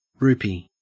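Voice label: "rupee", Australian English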